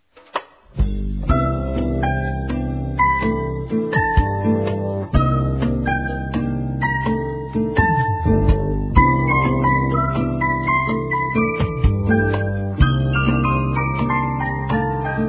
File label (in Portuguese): Dia dos Namorados Recente Feminino; Telemensagens 2017